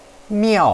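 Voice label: "miao4", Chinese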